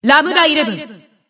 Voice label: Bullet System Voice; BBCP